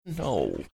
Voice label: quiet "no"

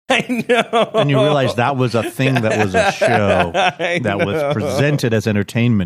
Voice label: laughing saying i know i know

